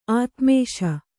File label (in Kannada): "ಆತ್ಮೇಶ", Kannada